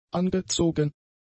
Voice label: "angezogen", German; adjectives

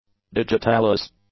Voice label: "digitalis", English; nouns

